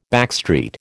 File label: "backstreet", American